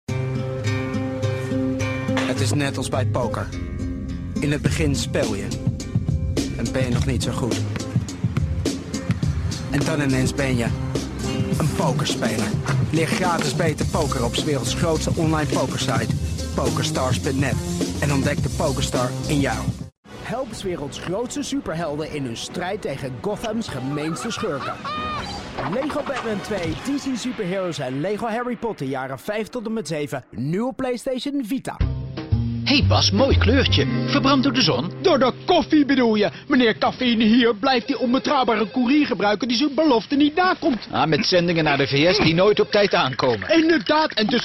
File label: Dutch